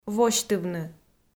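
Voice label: "восьтывны", Komi, female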